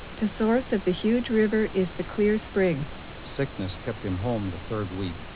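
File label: American, Input speech (SNR=10dB)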